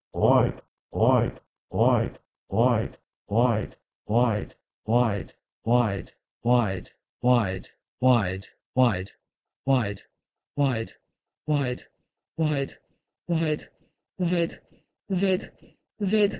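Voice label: Proto-Indo-European "woid" to Bosnian-Croatian-Serbian "vedeti"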